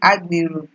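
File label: "agbero", Nigerian Pidgin, female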